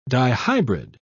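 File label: "dihybrid", British English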